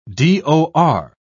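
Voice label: "dor", British English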